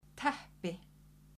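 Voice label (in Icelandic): "teppi", Icelandic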